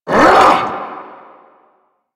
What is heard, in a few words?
Vocal Attack Short